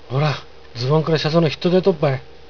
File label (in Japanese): 有田弁, ほらっ、ズボンからシャツのひっとでとっばい。; 指示代名詞関係（こ・そ・あ・ど）; 単語 ひっとでとっ; 意味 はみ出している; 訳文 おいおい、ズボンからシャツがはみ出しているぞ。